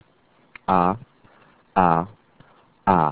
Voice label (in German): "a", German, alphabet; German Fairy Tales & Stories: Salz ist wertvoller als Gold